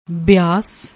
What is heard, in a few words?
"beeaas", Punjabi; ਬਿਆਸ